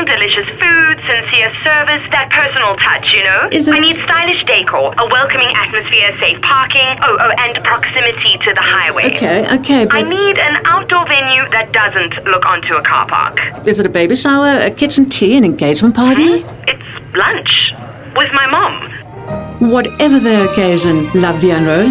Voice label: female english south african restaurant commercial; la vie en rose